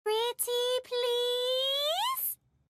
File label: cute pretty pleeeaase